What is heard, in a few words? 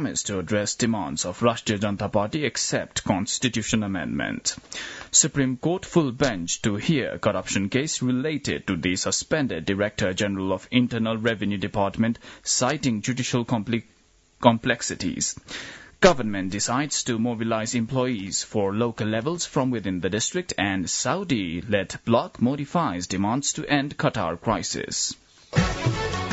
English News